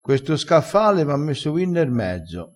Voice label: "a pelino", Crespinese

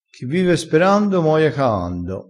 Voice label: "chi vive sperando", crespinese

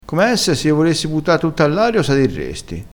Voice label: "dirresti", crespinese

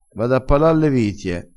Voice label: "pala", dialettale

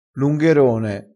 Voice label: "lungherone", crespinese

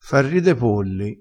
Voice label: "fa ride polli", crespinese

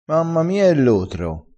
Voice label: "lotro", crespinese

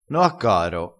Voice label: "n ho a caro", crespinese, vocabolario dialettale

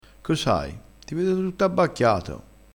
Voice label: "abbacchiato", Crespinese

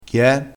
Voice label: "samo", crespinese

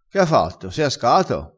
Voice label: "sciupa", dialettale, crespinese

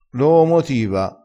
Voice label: "loomotiva", crespinese, vocabolario dialettale

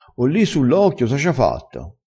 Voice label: "steccolo", crespinese

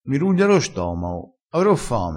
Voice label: "ruglia", vocabolario dialettale, crespinese

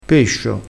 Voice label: "pescio", crespinese

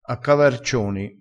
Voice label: "a cavarcioni", Crespinese

